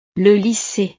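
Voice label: "le lycee", French; utiles escolares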